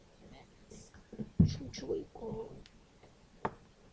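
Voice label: "jig", spoken word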